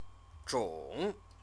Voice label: "zhong", Chinese